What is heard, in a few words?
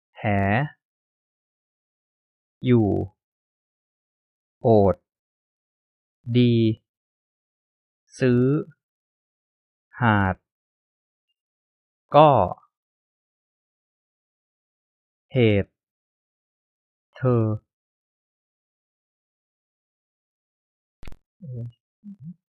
thai vowels